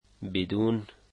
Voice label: "without", Dari